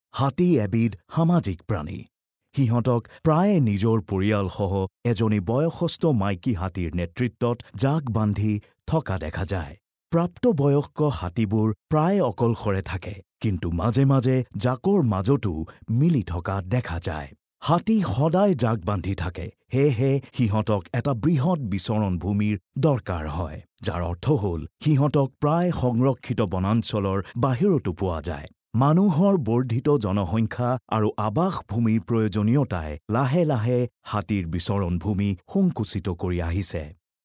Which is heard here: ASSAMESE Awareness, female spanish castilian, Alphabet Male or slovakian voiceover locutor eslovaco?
ASSAMESE Awareness